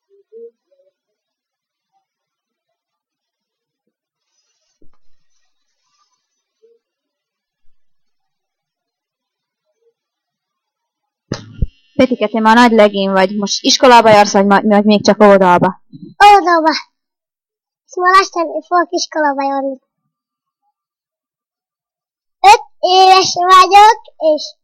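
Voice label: dialect collection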